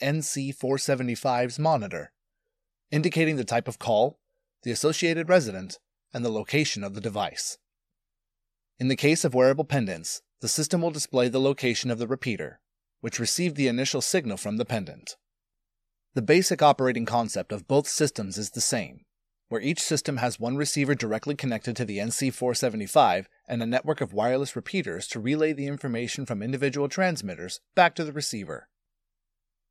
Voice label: Tech Explainer

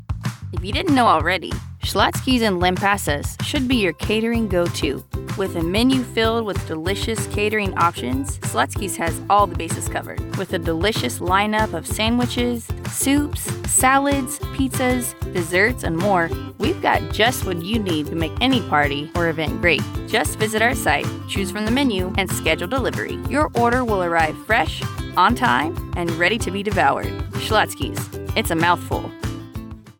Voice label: Commercial; Schlotzsky's

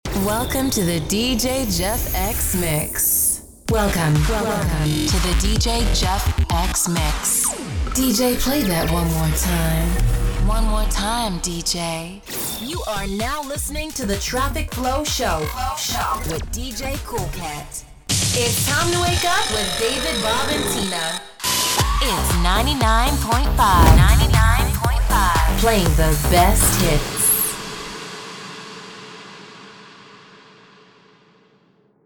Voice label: DJ Drop

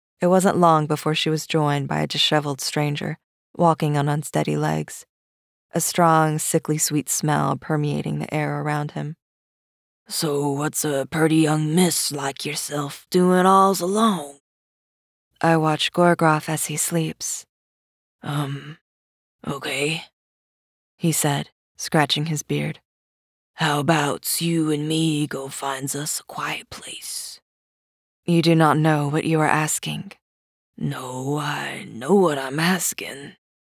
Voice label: YA Fiction Audiobook

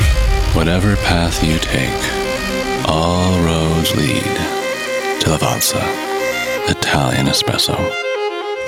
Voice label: spot; lavazza

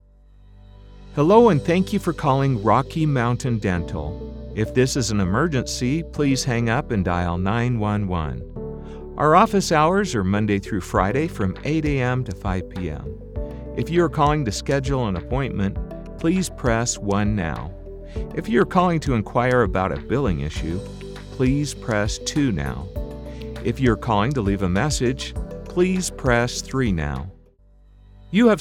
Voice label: IVR Telephony

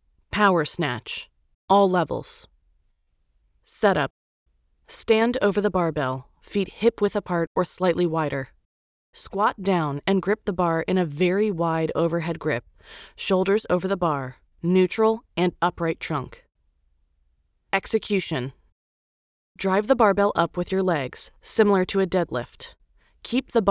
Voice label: Explainer; Power Snatch

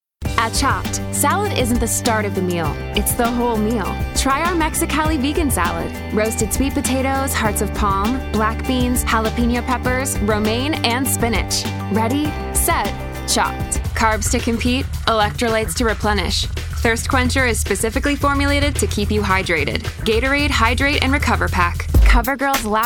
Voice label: Commercial

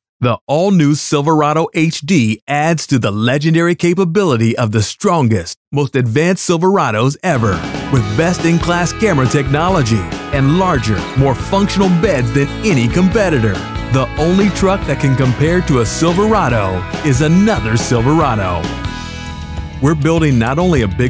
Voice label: Commercial Demo

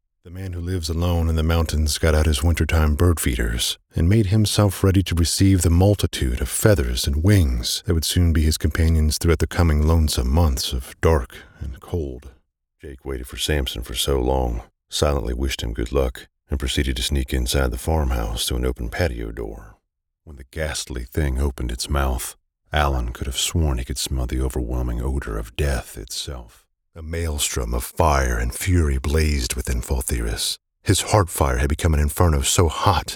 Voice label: Audiobook

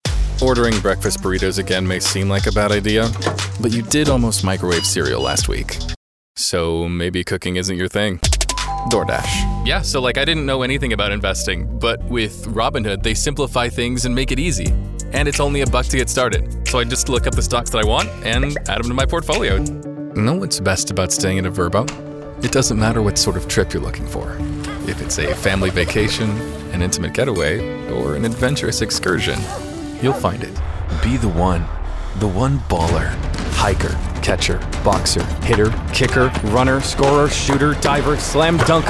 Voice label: Commercial

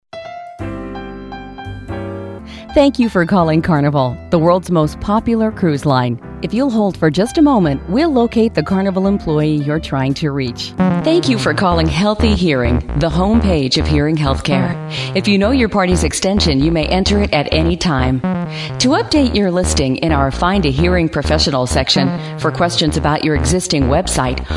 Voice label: IVR

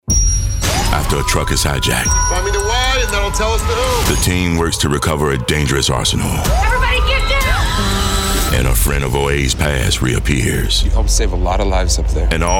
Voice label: Promo

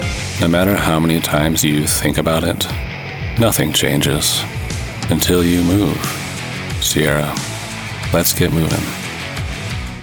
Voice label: Spot; Sierra

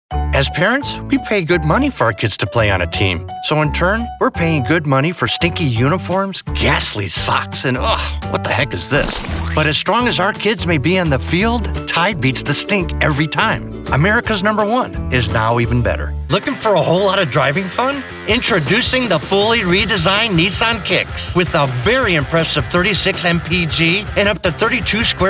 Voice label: Commercial

